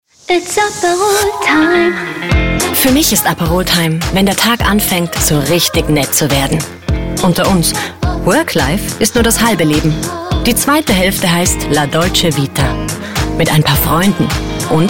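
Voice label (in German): Werbung lässig; Aperol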